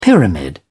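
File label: "pyramid", British English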